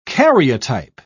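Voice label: "karyotype", British English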